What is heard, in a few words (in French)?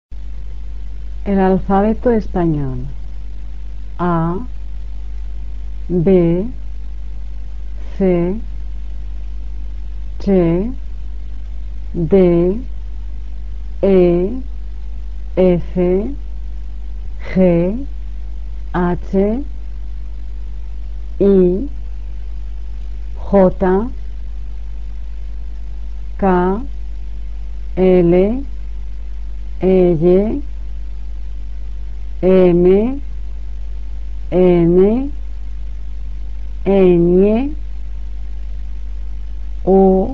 prononciation alphabet, espagnol